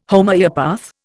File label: "Homoeopath", Indian